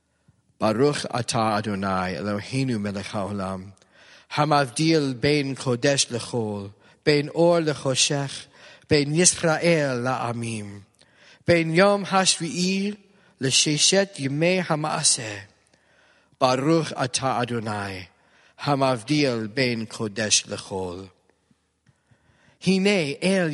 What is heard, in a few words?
Hebrew Blessing